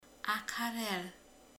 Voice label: "hív", romani

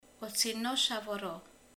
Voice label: "újszülött", romani

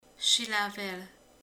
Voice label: "seper", romani

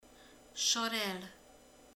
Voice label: "önt", romani

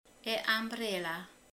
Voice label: "esernyő", romani

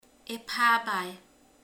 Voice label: "alma", romani

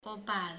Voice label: "haj", romani

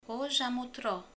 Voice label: "vej", romani

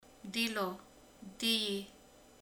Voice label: "buta", romani

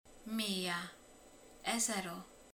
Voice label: "ezer", romani

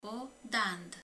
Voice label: "fog", romani

